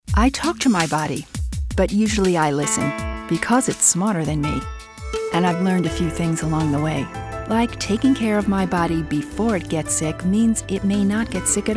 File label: Commercial, Voice Over